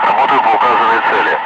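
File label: Russian speech, Ka-50 Wingman; Messages engaging designated target; DCS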